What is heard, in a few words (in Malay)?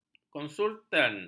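"konsultan", Malay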